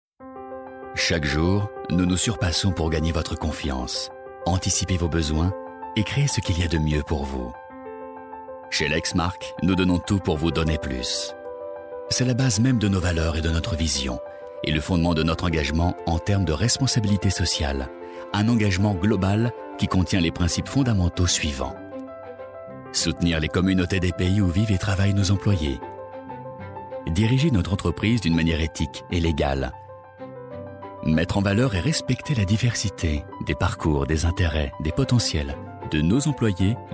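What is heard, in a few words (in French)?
INSTITUTIONNEL, voix; Lexmark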